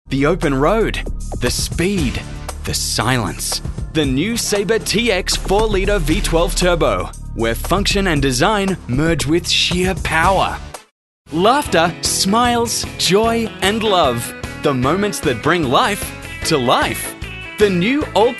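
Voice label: Australian, TV commercial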